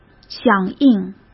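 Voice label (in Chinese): "响应", Mandarin Chinese; hsk; chinese flashcards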